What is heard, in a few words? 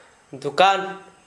"dukan", Gta, Dialect: Hill; shop